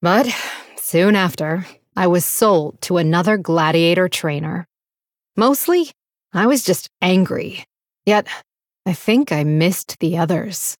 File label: Female Blood Elf; Valeera